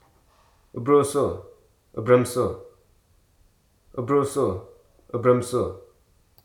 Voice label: "itself", Hruso